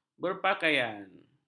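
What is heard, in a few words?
"berpakaian", Malay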